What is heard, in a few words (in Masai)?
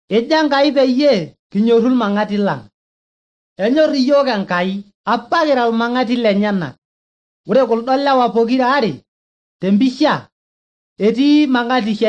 maasai bsv nt drama; good news; bible